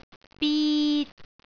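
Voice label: "bit3", Cantonese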